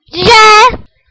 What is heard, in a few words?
'z, tigrinya, alphabet sounds